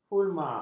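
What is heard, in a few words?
"fulmar", Malay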